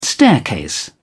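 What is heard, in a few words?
"staircase", British English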